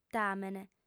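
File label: "tamen", Sardinian, female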